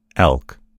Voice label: "elk", en US; gcompris

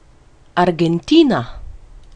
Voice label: "argentina", en; gcompris-data; geography

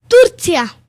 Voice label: turkey, bg; gcompris; geography

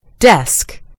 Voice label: "desk", en US; gcompris

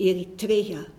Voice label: eritrea, nl; gcompris-data; geography

